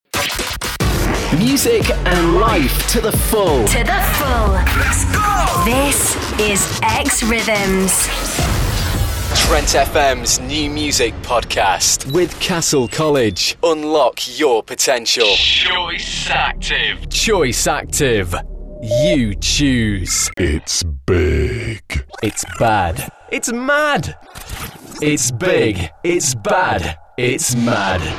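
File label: sonic imaging audio branding showreel, Male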